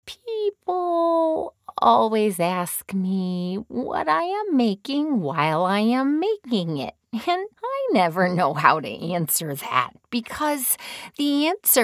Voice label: elearning